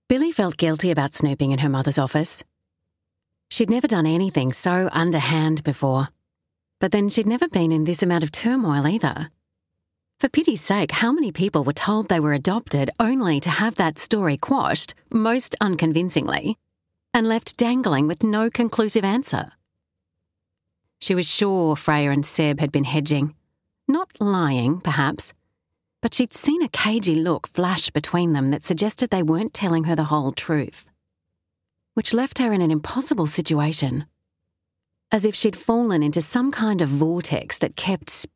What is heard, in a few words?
Audiobook Sample; The Sisters Gift; Chapter 28; Audiobook Narration